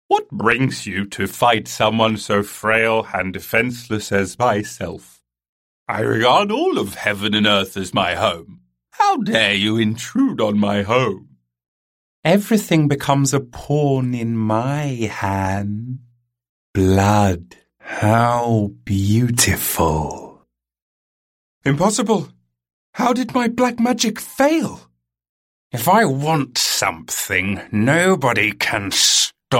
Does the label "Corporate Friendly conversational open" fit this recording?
No